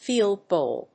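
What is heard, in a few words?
"field goal", English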